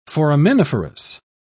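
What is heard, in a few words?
"foraminiferous", en, uk British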